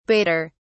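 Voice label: "badder", British English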